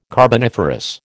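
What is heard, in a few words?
"carboniferous", American English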